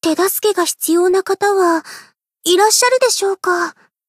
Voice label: Cafe monolog; BA; Mari; Sportsuit